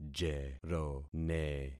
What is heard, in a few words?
"jerone", concat syllables; Affixing experiment